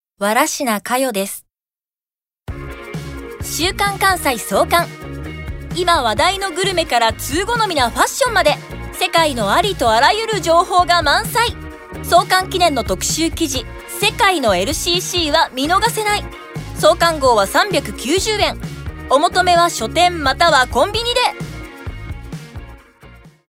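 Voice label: commercial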